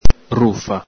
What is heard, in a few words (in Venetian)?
"rufa", Veneto; filth, scabs; sudiciume, incrostazioni della pelle